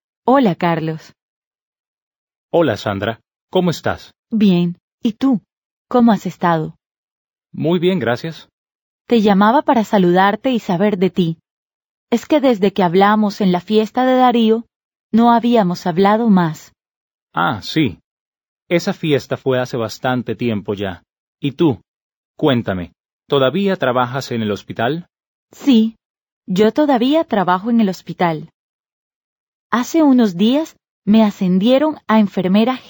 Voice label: Dialogue, Spanish